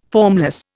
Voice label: "formless", Indian

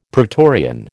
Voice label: "pretorian", American

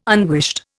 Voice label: "unwished", Indian

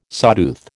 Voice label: "sawtooth", American

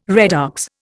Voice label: "redox", Indian

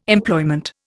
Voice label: "Employment", Indian

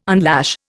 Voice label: "unlash", Indian

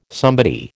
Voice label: "somebody", American

